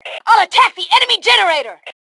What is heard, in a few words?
Self Attack Generator, Female